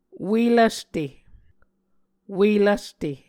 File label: "ue lvste", Muscogee